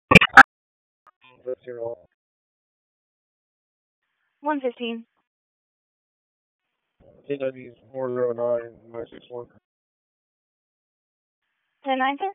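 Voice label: Police Northwest District Dispatch; Raleigh; p25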